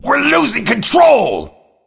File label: Exec Generic, Executioner Orc Male